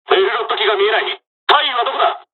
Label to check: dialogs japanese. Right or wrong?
Right